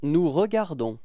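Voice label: "regarder nous", French; frans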